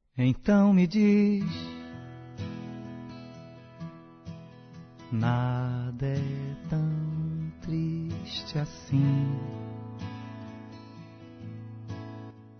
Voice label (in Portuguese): Aniversário Neutro F; Aniversários; Aniversários diversos